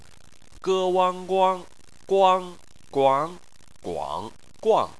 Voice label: "guang", chinese

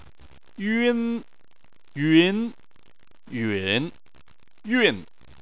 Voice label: "yun", chinese

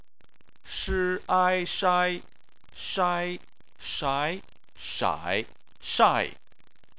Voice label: "shai", chinese

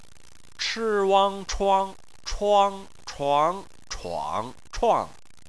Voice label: "chuang", Chinese

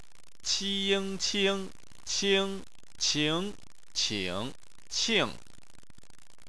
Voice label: "qing", chinese

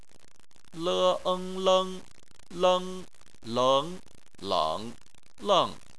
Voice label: "leng", chinese

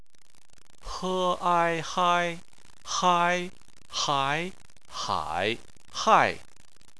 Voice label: "hai", chinese

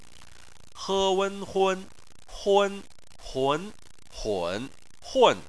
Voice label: "hun", chinese

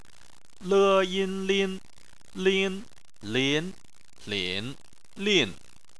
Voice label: "lin", chinese

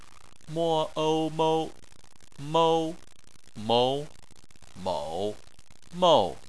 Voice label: "mou", chinese

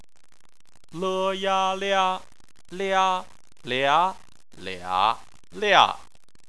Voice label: "lia", chinese

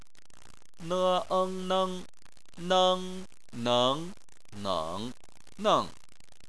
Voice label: "neng", chinese

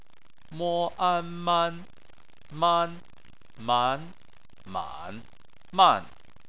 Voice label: "man", chinese

